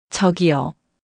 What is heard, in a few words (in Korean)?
저기요, korean pronunciation excuse me there